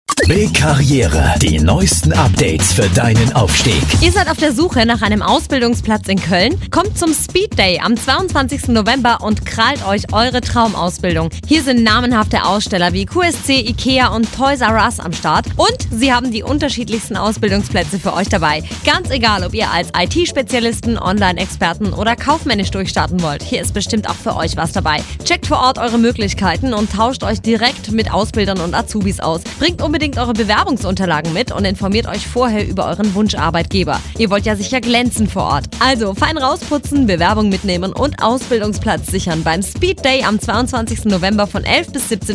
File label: Radio Spot; Speedday